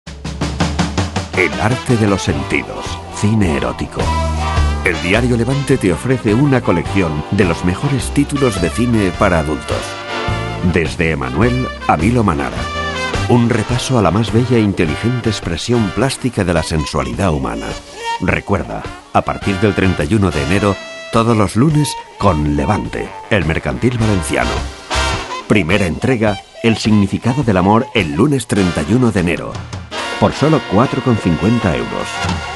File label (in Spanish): comercial